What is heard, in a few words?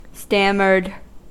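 "stammered", US English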